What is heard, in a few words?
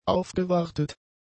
"aufgewartet", German; adjectives